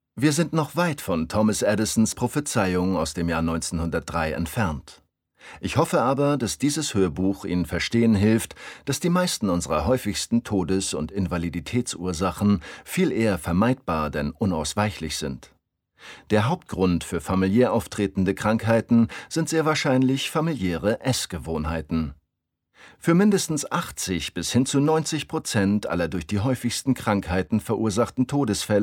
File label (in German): Hoerbuch sachlich